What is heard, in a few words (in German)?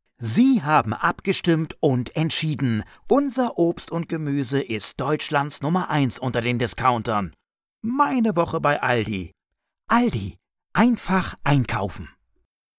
werblich; Aldi